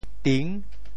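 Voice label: "ting6", Teochew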